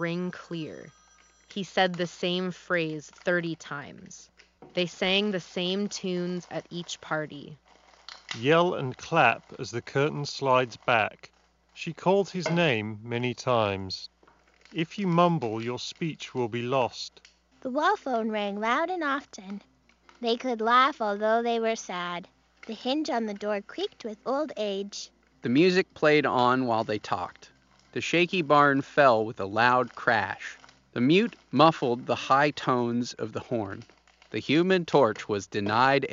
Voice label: Office microphone sample; Turtle Beach Stealth Pro

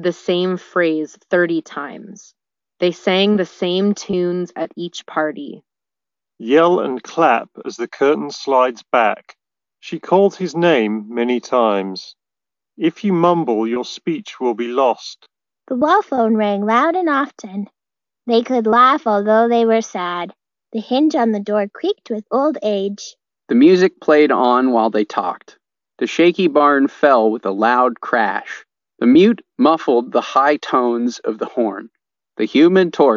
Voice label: Ideal microphone sample; Sony WF C 500

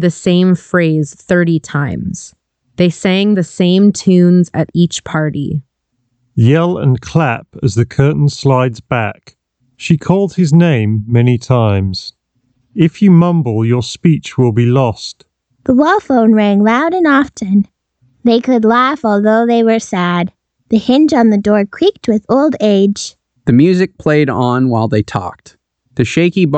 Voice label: Ideal microphone sample; Beyerdynamic MMX 300 Pro

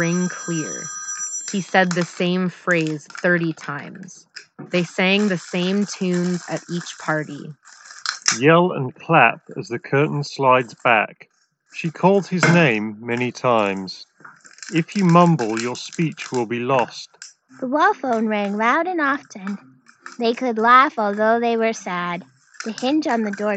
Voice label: Office microphone sample; Logitech G435

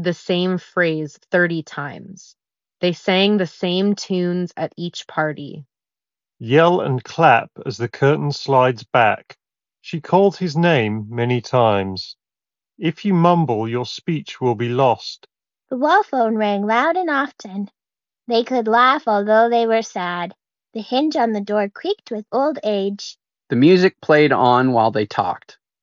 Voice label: Ideal microphone sample; JLab Epic Lab Edition

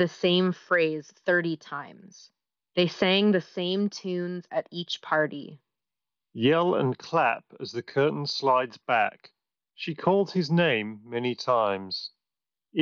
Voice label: Headphones Ideal microphone sample; Bose QC Ultra

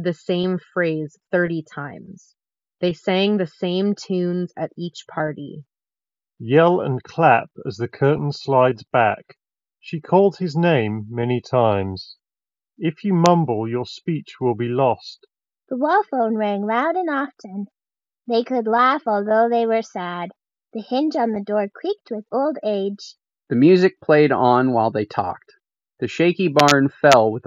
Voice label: Edifier TWS1 Pro 2 Ideal microphone sample

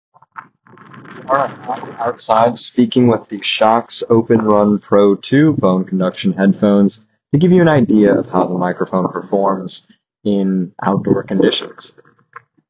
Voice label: microphone windy; Shokz openrun pro 2

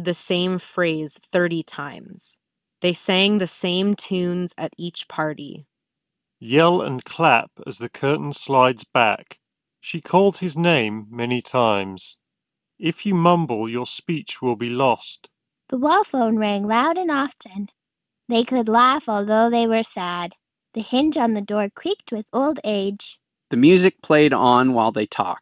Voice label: Ideal microphone sample; Bowers and Wilkins Px8

